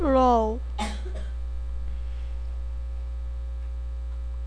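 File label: "low", spoken word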